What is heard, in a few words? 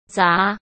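"杂", Mandarin Chinese; chinese flashcards; hsk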